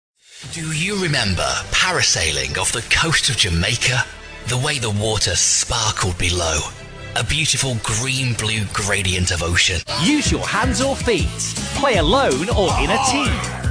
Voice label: Male british accent